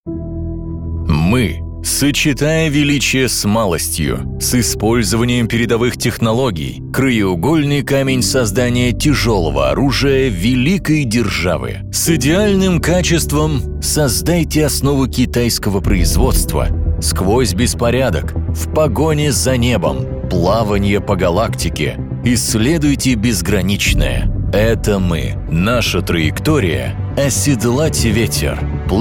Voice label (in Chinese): 俄语男声-常规专题